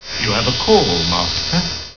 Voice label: call master, Male voice, "You have a call, Master."